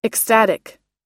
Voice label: "ecstatic" us; 有頂天の、夢中の、(…に)夢中で

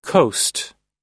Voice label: "coast", us; (大陸・大きな島などの)海岸、沿岸

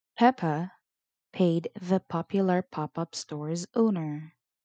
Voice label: Tongue Twister p

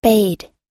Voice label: "bade", us; bid※ の過去形 ※(特に競売で)〔ものに〕〈値を〉つける

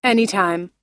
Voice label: "anytime" us; いつでも、どんな時でも、常に

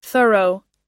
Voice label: "thorough", us; 完全な、徹底的な、まったくの

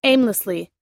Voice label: "aimlessly", us; 目的なしに、漫然と

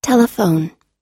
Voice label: "telephone", us

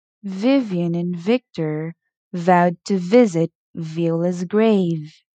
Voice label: Tongue Twister v

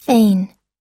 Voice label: "feign", us; (…を)装う、(…の)ふりをする

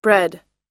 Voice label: "bread" us; パン、(日常の)主食物

